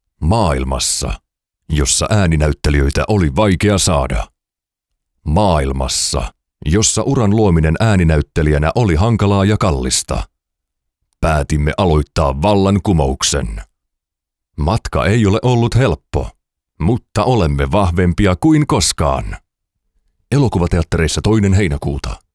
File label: Trailer